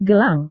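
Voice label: "gelang", Indonesian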